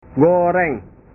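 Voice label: "goreng", Indonesian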